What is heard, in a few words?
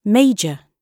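"major", British English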